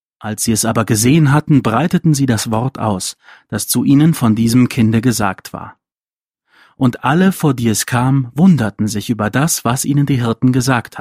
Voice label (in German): Lesung Lukas; Lukas 2, 17- 20